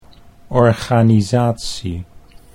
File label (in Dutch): "organisatie", Dutch